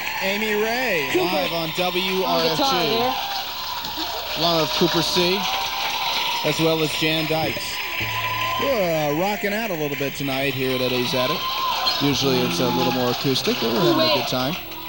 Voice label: radio announcer